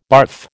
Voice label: "barf", American

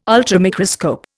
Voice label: "ultramicroscope", Indian

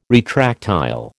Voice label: "retractile", American

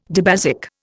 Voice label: "dibasic", Indian

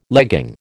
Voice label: "legging", American

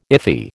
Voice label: "iffy", American

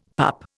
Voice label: "pup", Indian; Dictionary

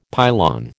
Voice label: "pylon", American

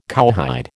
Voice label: "cowhide", American

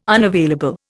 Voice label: "unavailable", Indian

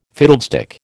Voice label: "fiddlestick", American

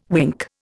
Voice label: "wink", Indian

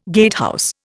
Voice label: "gatehouse", Indian

